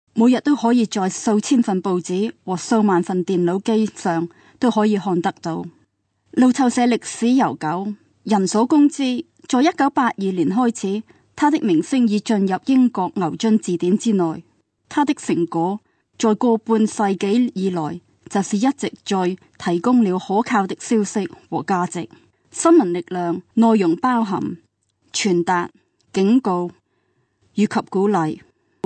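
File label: Cantonese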